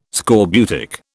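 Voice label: "scorbutic", British English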